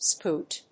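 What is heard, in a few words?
"scoot", English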